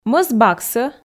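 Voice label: "мӧс баксӧ", Komi, female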